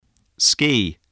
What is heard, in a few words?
"ski", voice, spelling